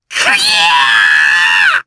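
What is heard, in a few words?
Vox Casting Japanese; Lakrak; kings raid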